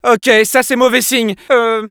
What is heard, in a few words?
negative vocalization, fr; Scout